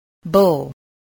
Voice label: "bull", spelling